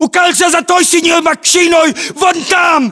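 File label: order cover car blue, battlechatter, Russian; localized english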